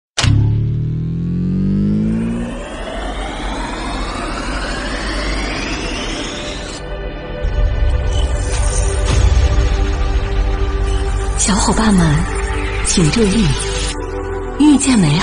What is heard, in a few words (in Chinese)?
女 开场白